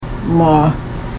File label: Pronunciation Guide wmaa; Biigtigong